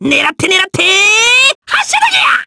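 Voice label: voice, Japanese; Lakrak; kingsraid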